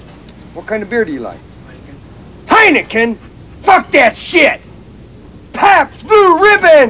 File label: beer talk